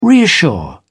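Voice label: "reassure", British English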